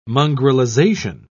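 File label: "mongrelization", English, British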